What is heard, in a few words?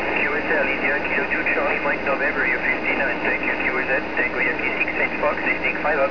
28 ssb